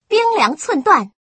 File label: "bingliang", female